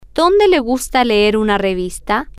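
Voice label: where do you like to read a magazine, Spanish, female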